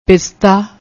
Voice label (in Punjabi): "pistaa", Punjabi